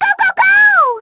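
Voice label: "go go go", baby voice; babydaisy; WII; MARIOKARTWII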